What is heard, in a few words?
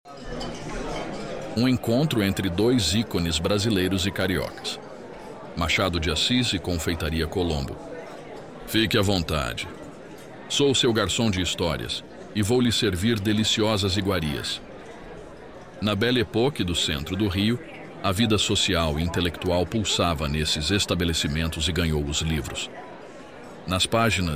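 Portuguese; CONFEITARIA COLOMBO; machado de assis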